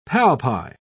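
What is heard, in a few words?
"palpi", British English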